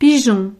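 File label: "pigeon", French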